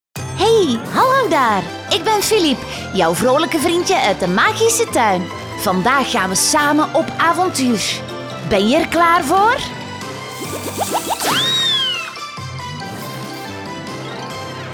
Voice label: flemish animation; flemish voice actors